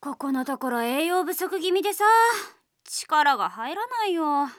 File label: battery low, Japan Anime Girls; Windows; Bing; Nanao